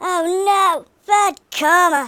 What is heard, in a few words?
oi nutter, speech, Hippy